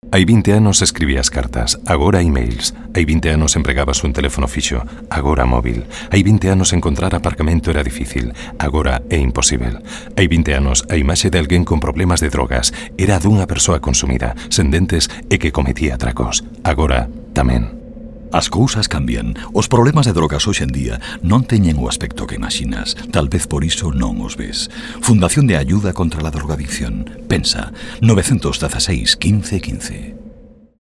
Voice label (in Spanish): Campañas Gallego